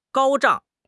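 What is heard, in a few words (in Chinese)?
"高涨", Chinese; HSK6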